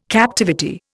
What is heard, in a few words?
"captivity", Indian